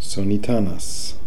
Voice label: "Sonitanis", pronounciations; Fourth Way; Beelzebub

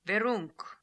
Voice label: "Veroonk", pronounciations; Fourth Way; Beelzebub

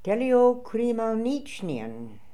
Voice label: "Teleokrimalnichnian", pronounciations; Fourth Way; Beelzebub

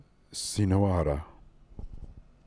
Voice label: "Sino ora", pronounciations; Fourth Way; Beelzebub

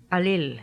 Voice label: "Alil", pronounciations; Fourth Way; Beelzebub

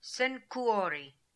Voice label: "Senkoo ori", pronounciations; Fourth Way; Beelzebub

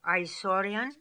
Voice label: "Aisorian", pronounciations; Fourth Way; Beelzebub

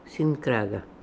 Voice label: "Sinkraga", pronounciations; Fourth Way; Beelzebub

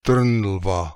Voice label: "Trnlva", pronounciations; Fourth Way; Beelzebub

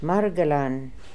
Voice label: "Margelan", pronounciations; Fourth Way; Beelzebub

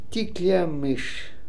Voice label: "Tikliamish", pronounciations; Fourth Way; Beelzebub

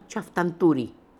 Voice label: "Tchaftantouri", pronounciations; Fourth Way; Beelzebub

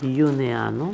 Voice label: "Uneano", pronounciations; Fourth Way; Beelzebub

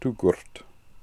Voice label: "Toogoort", pronounciations; Fourth Way; Beelzebub

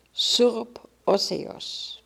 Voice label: "Surp Otheos", pronounciations; Fourth Way; Beelzebub

